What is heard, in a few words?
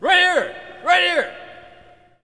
Hockey Male "Right Here"; Hockey Vocal Sounds